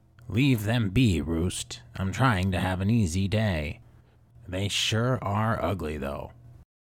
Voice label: Brutal and dangerous antagonist with menacing presence and ruthless killer instinct, Audiobook Villain; Hatchet